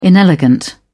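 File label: "inelegant", British English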